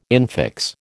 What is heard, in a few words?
"infix", American